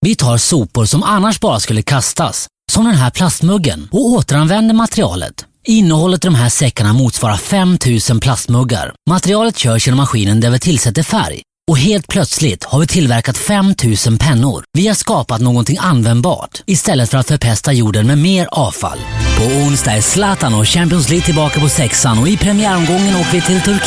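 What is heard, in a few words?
SWE, Swedish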